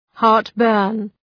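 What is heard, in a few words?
"heartburn", English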